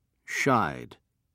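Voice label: "shied", British English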